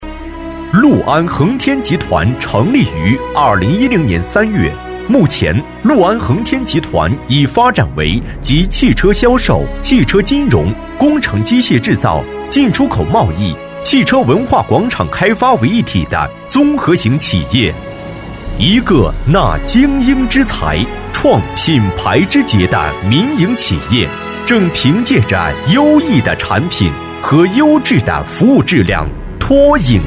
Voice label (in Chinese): 宣传片; 六安恒天集团